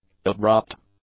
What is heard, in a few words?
"abrupt", english; adjectives